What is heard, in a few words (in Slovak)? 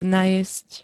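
"najesť", Slovak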